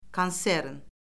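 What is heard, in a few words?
"konsern", Azerbaijani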